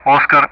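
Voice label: Speech Sound Russian Common Player Alphabet; DCS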